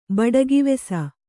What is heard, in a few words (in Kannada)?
"ಬಡಗಿವೆಸ", Kannada